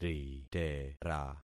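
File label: "ritera", concatenated syllables; Affixing experiment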